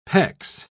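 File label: "pecs", British English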